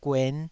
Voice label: "guen5", taiwanese